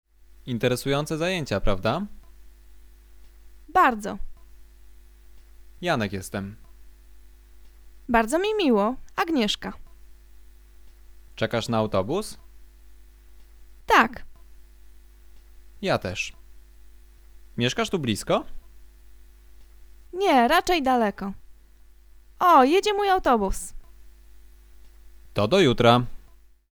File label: conversation; lektorek; firstyear